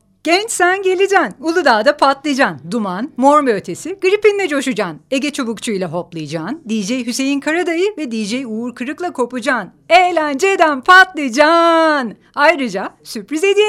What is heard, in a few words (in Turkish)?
reklam